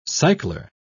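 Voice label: "cycler", British English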